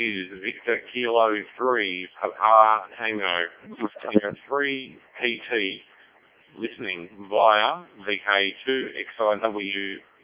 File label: decoded speech, 7177KHz